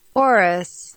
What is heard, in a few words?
Oris Pronunciation